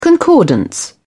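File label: "concordance", British English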